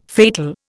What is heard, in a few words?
"fatal", Indian